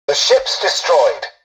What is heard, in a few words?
tutorial dialogue, english; War Thunder